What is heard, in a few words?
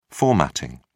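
"formatting", English, British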